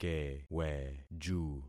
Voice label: "keweju", concat syllables; Affixing experiment